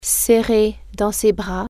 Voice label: hug, french

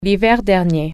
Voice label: last winter, french

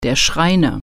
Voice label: carpenter, German

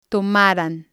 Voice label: "tomaran", Spanish

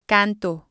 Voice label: "canto", Spanish

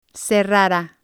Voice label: "cerrara", spanish

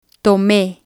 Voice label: "tome", Spanish

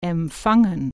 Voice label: "receive", German

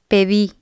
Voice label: "pedi", spanish

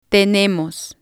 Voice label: "tenemos", Spanish

